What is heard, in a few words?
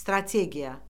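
"strategiya", Azerbaijani; Orfoqrafiya Lüğəti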